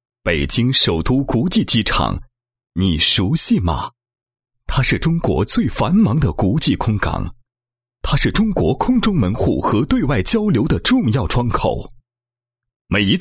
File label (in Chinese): 男 解说词 视频解说词; 人力招聘视频解说词